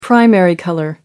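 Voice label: "primary color", British English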